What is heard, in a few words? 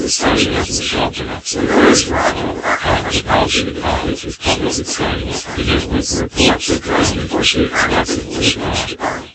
mixed vocoded